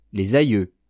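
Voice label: "les aieux", French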